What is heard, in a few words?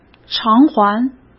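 "偿还", Mandarin Chinese; chinese flashcards; hsk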